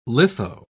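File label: "litho", British English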